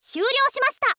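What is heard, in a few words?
"syuuryou shimashita", Japanese, girl; voice; info girl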